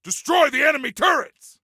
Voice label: Attack Turrets, Soldier Male; Soldier Male 03